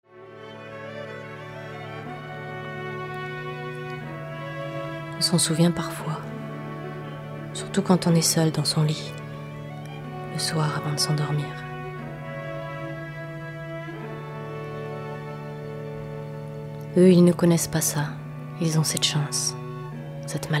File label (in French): voix off oublieux